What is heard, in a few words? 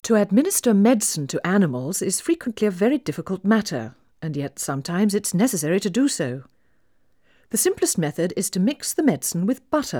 Female speech English Mono output noised; 4bit